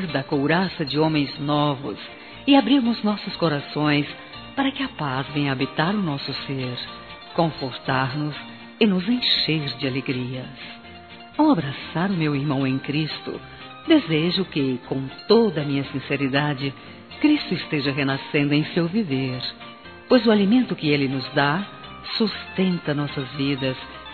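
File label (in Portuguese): Natal neutro feminino, Telemensagens; Natal e Ano novo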